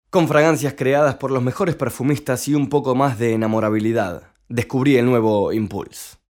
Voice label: Bajada, Espanol